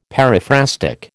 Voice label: "periphrastic", American